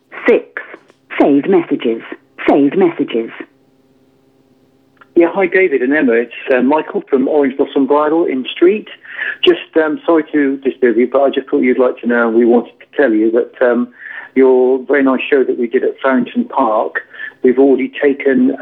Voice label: Voicemail